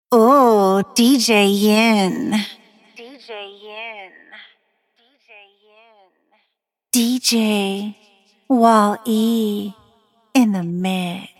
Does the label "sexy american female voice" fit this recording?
Yes